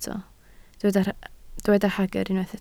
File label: "hagr", Welsh